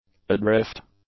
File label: "adrift", English; adjectives